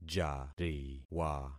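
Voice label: "jariwa", concatenated syllables; Affixing experiment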